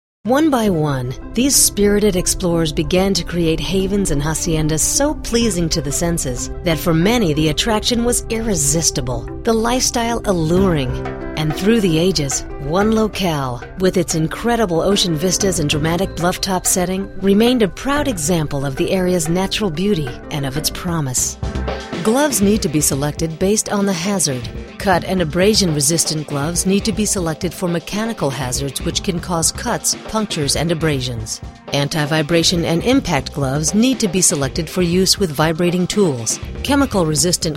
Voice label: Narration